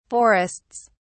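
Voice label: "forests", British English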